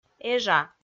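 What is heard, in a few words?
"эжа", Komi, female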